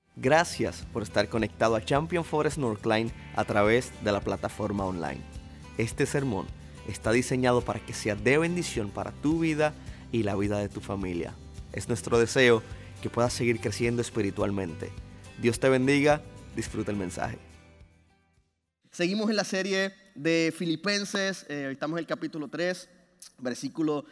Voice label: Sermon Spanish

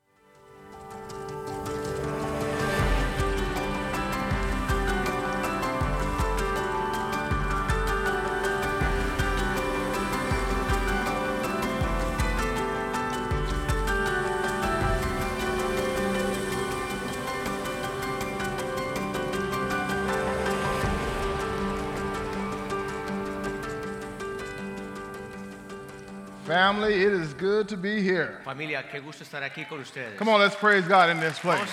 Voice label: SERMON SPA

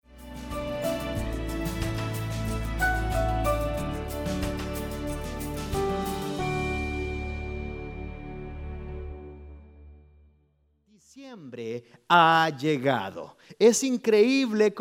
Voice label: Spanish Sermon

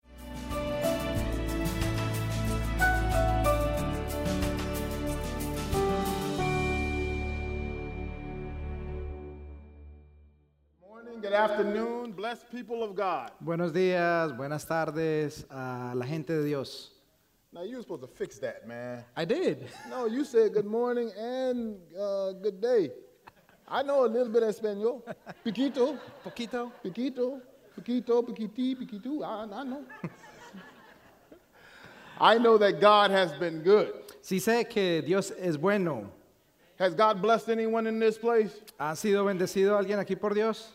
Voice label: Spanish Sermon

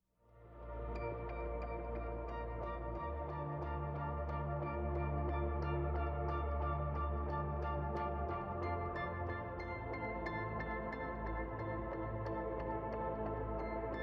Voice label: Sermon Spanish

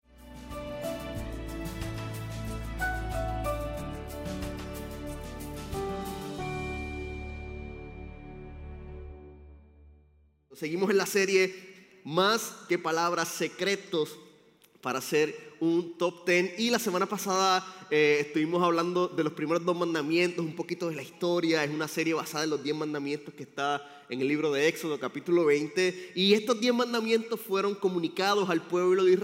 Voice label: Sermon Spanish

